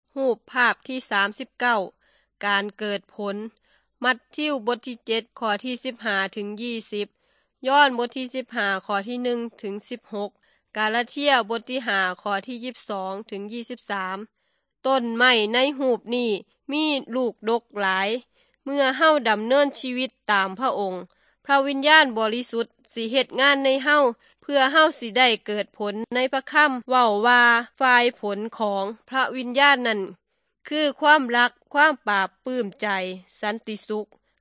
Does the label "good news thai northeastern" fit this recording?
Yes